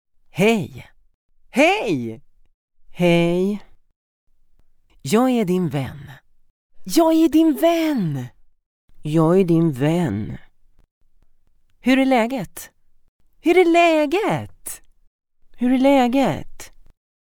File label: woman; 55